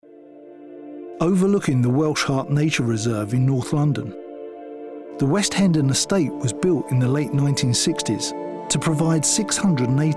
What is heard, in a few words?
Narrative